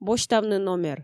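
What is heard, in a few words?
"босьтавны номер", Komi, female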